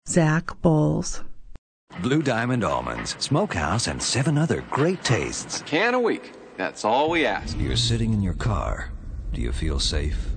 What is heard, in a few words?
male voice